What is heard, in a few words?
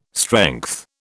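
"strength", British English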